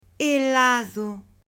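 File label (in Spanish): "helado" Spanish, male; ALIMENTOS; AppLenguaje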